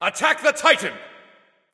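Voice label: vox attack, male; smite; ullr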